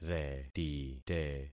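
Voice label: "retite", concatenated syllables; Affixing experiment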